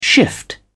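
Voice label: "shift", British English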